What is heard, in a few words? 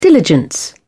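"diligence", British English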